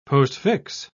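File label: "postfix", British English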